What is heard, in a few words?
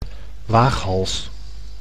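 "waaghals", Dutch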